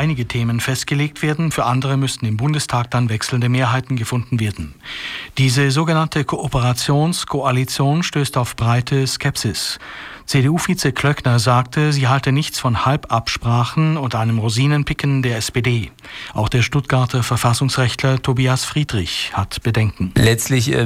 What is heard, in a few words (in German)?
Nachrichten